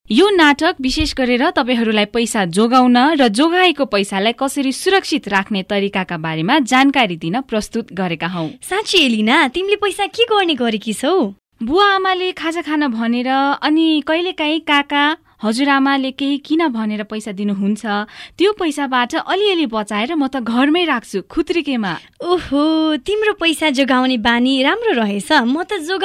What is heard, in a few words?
Nepali Female